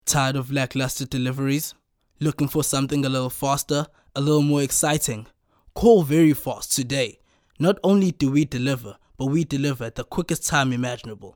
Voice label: hard sell